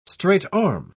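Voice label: "straight-arm", British English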